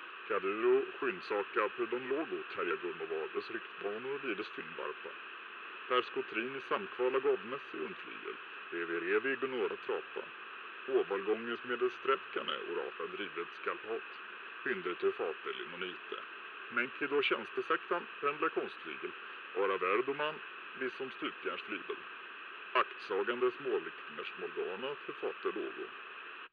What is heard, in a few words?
TV reporter; teardown; ps5 windows xbox series xs